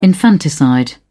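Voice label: "infanticide", British English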